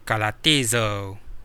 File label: understand, kadazan